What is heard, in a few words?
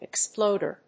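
"exploder", English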